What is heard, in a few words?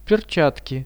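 "gloves", Russian; перчатки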